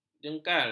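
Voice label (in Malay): "jengkal", Malay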